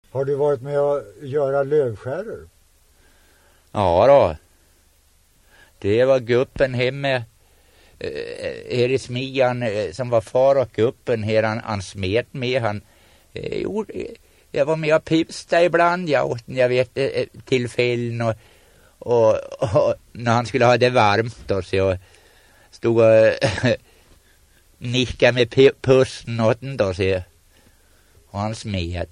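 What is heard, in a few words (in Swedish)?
Gräsö Uppland, dialekter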